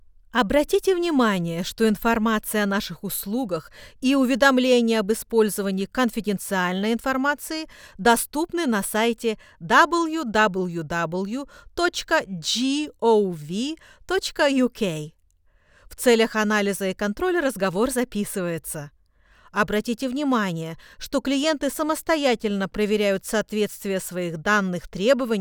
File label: russian ivr uk visa immigration, voice